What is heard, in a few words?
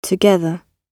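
"together" British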